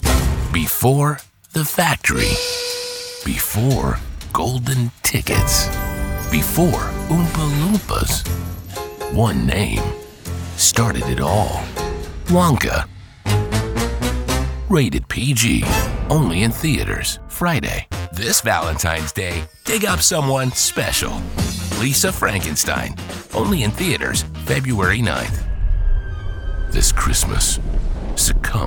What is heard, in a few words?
Processed Trailer